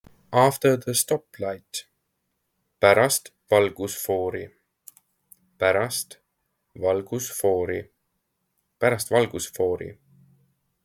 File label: After the stoplight, estonian; the talking estonian phrasebook